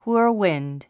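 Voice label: "whirlwind", English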